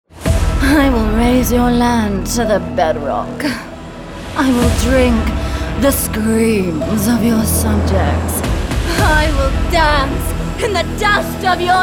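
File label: English Australian Female Animation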